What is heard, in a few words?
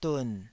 "dun3", Taiwanese